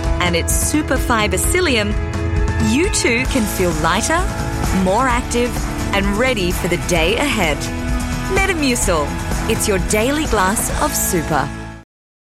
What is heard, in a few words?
AU English, Australian English Female; Metamucil Glass of Super